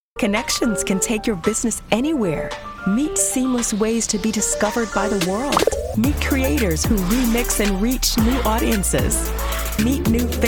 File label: Commercial